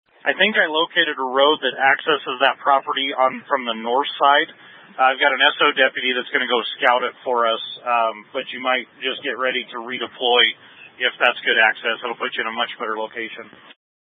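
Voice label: Incident Tactical 2, Scanner audio recording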